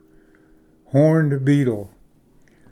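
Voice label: "horned beetle", English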